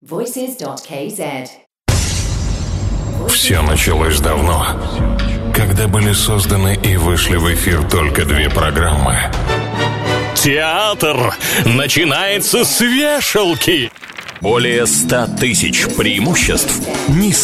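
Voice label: Russian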